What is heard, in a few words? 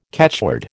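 "catchword", American English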